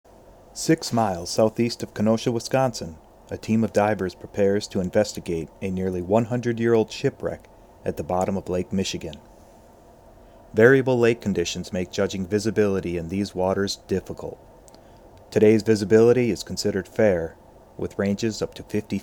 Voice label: Narrator; Shipwrecks

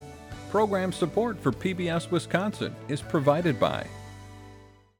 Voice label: Preamble, Male; Promotions; Rebrands

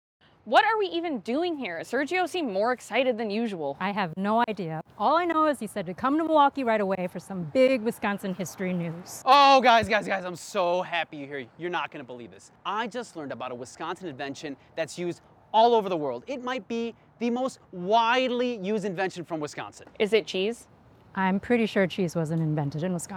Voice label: Dialogue Outside; QWERTY; QWERTYFEST

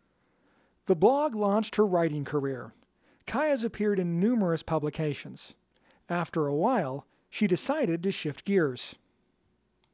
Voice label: Mechanic Shop Femme VO Scratch

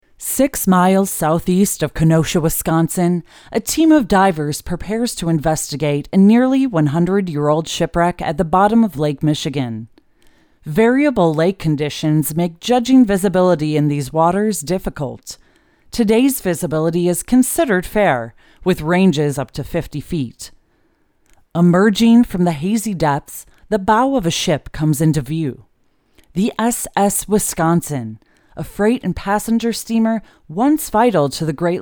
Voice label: Narrator; Shipwrecks

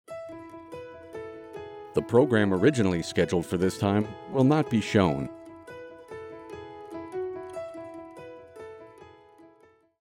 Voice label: ANNCR Program Change male; Promotions; Rebrands

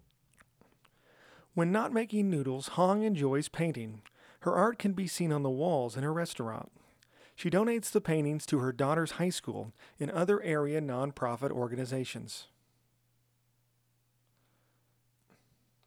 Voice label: Noodles SCRATCH VO

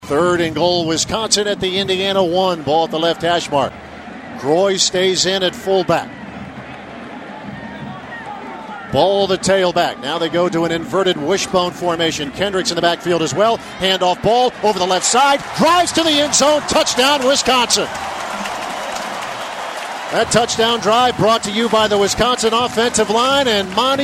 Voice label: Football Radio; UW Athletics; Bucky Badger

